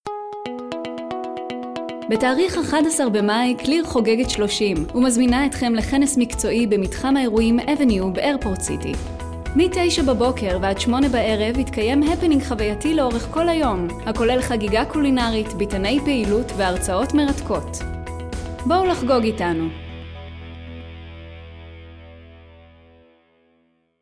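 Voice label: Hebrew F IVR, female; voice over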